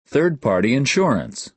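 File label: "third party", American English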